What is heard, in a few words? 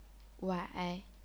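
"wai", chinese pinyin, third tone; 崴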